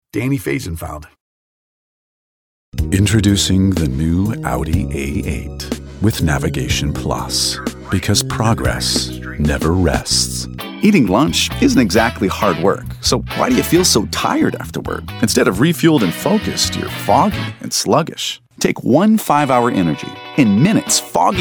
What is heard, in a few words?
commercial; Innovative LA